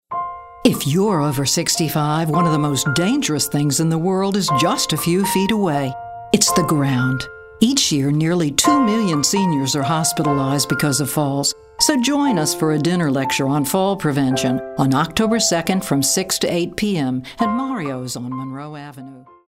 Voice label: caring

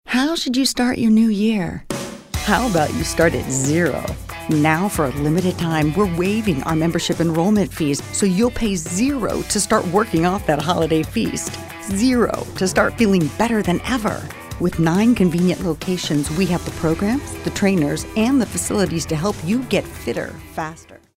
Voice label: upbeat

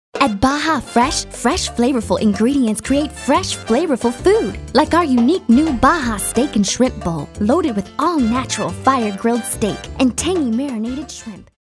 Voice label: perky

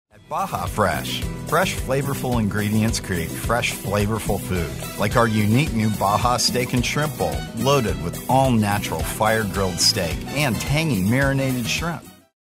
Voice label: announcer

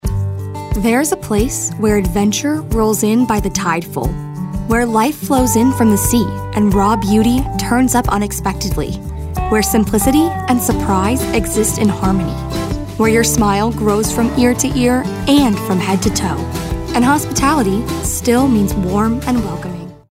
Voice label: folksy

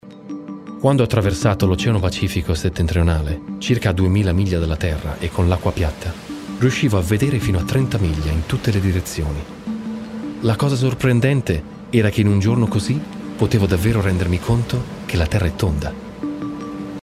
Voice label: Italian; The Pacific

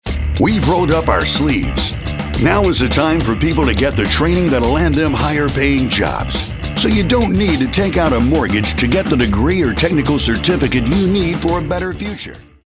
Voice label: motivational

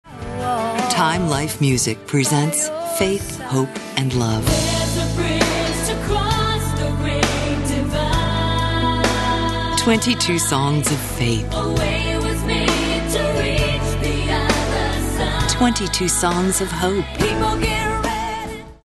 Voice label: warm fuzzy

